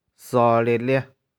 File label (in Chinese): "sau3lih4lih4", 玉環